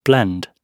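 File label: "blend", British English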